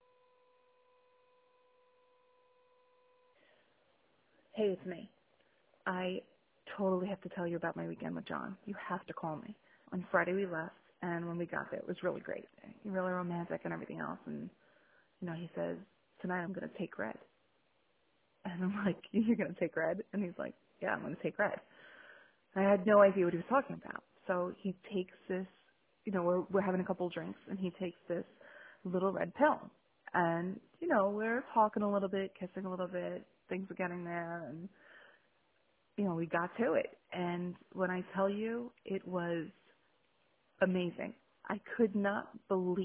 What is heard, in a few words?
voicemail